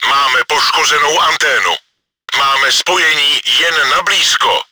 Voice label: radio damaged, cz; Máme poškozenou anténu, máme spojení jen na blízko.; Антенна повреждена, есть связь только с ближайшим окружением.; Czech; World of Tanks